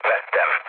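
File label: chat, speech hungarian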